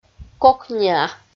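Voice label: "кокниа" Komi, female; audio dict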